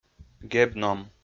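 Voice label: "геб-ном", Komi, male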